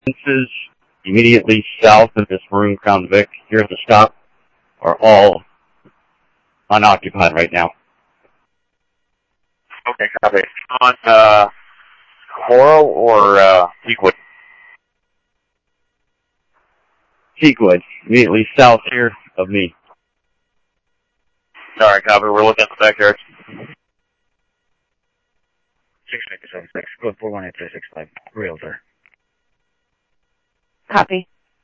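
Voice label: Simulcast police department, scanner audio trunk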